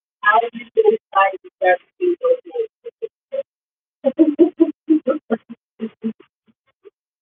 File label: voice message